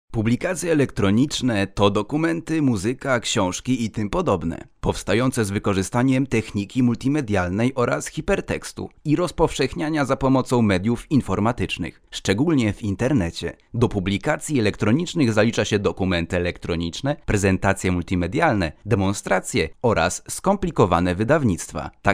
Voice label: Polish male